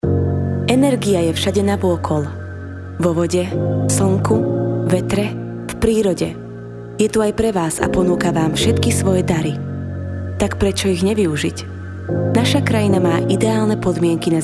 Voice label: voiceover